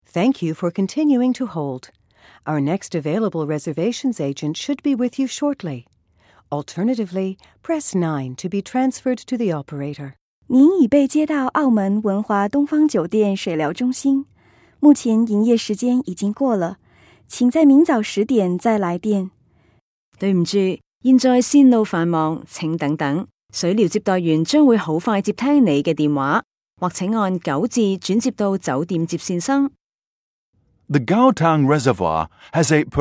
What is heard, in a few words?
voiceover sample